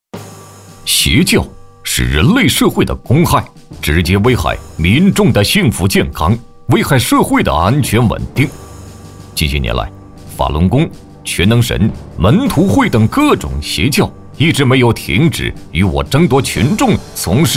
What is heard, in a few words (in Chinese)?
男 专题 严肃 浑厚; 反邪教工作纪实; 央视老师